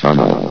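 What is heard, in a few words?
"tunnel", male; vox; source engine; hl1; video games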